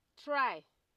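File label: "try", Nigerian Pidgin, female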